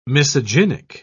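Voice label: "misogynic", British English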